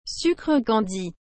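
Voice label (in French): "sucre candi", French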